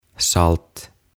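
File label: "Salt", Swedish; Suola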